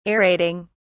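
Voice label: "aerating", British English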